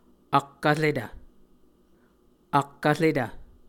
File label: "ak vretv", Muscogee